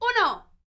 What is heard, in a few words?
MEX FEM, MEXICAN; ILIO; Vocal Planet VOL-5